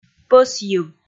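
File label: "пӧсйыв", Komi, female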